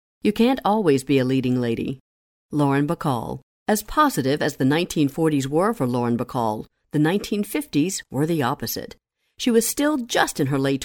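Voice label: Non Fiction Biography; Lauren Bacall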